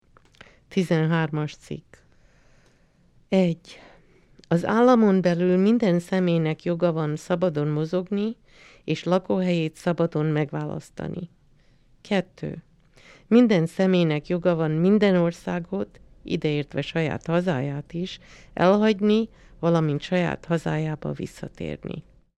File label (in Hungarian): Magyar